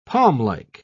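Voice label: "palmlike", British English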